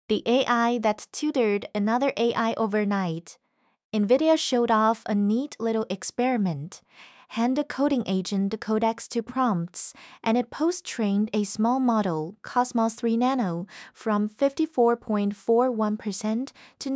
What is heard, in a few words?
audiobook; ai tutors ai recursive self improvement